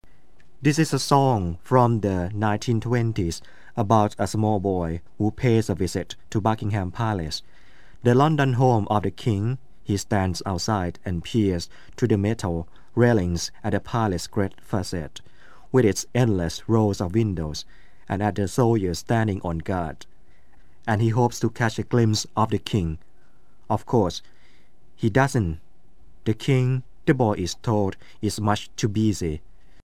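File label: Thai male voice narration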